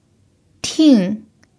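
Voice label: "ting4", Mandarin pinyin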